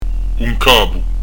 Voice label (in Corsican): "un capu", Corse; un k'abu